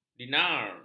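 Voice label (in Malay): "dinar", Malay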